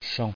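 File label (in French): "chant" French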